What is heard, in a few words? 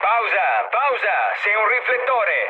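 Italian searchlite mood low, speech; War Thunder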